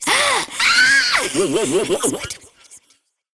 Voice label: Girl Idle; Dialog; Warframe; Zariman; Skitter Girl